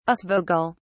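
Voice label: "aasvogel", British English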